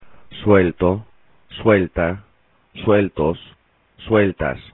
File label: "suelto", Spanish; adj past participle